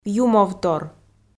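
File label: "юмовтор", Komi, female; kpv audio dict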